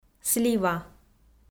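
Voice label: "слива", Komi-Zyrian, female; kpv audio dict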